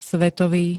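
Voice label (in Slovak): "svetový", Slovak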